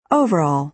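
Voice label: "overall", American English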